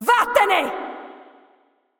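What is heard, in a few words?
voice over, Italian; Irelia assist; bloom minigame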